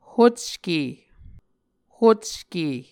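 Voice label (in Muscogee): "hocke", Muscogee word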